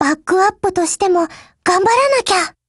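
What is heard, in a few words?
operation begin, Japanese; HK23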